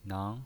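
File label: "nang", pinyin voice, Mandarin Chinese, second tone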